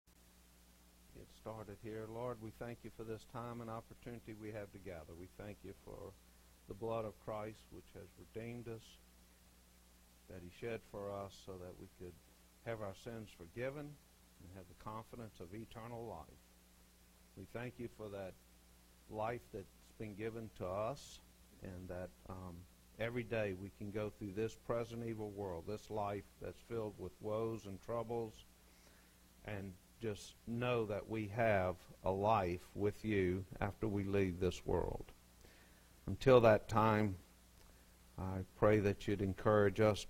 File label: Preaching